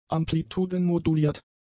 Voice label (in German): "amplitudenmoduliert", German; adjectives